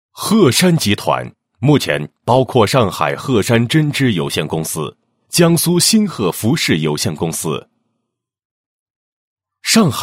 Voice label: 男, 企业宣传; 鹤山缅甸工厂

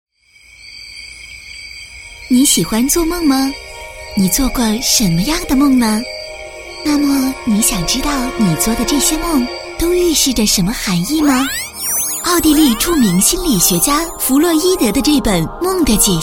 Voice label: 女, 片花; 梦的解析

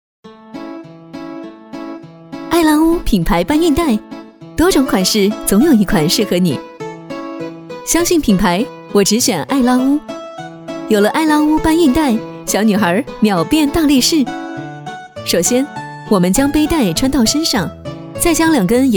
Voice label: 女 广告 学生用品 青春 甜美

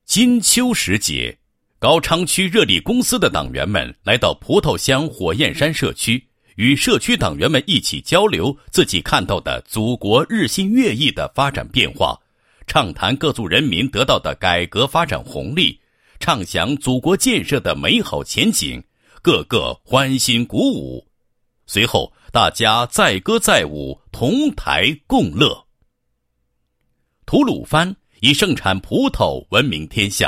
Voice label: 男 政府模范单位 配音; 高昌区热力公司民族团结工作进步模范单位创建

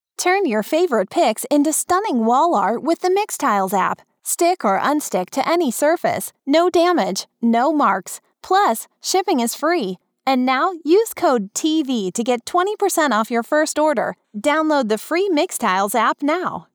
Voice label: 外籍英女 干音 叙述

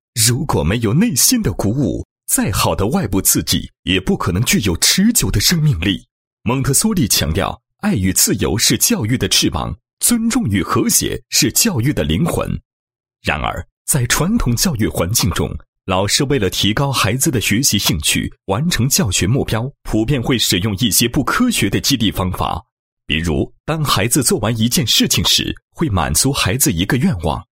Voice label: 男-教育-跨世纪教育成果展播 摒弃奖惩制度, 配音案例

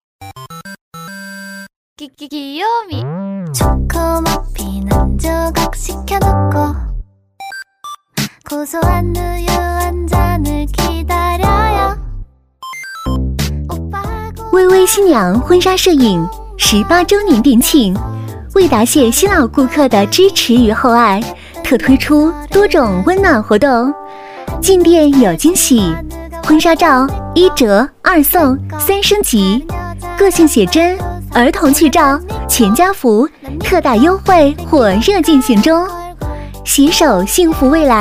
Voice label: 女 广告, 国语女声配音, 磁性; 微微新娘婚纱

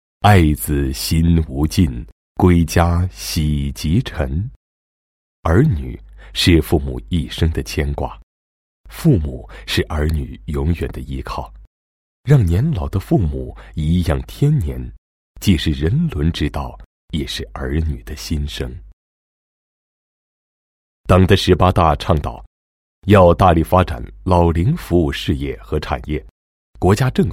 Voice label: 男 公司企业 宣传简介; 博爱夕阳红养生园

